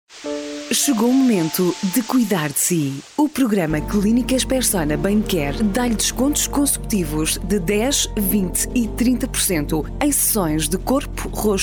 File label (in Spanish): silia locutora portuguesa; Portuguese